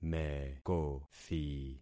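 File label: "mekothi", concatenated syllables; Affixing experiment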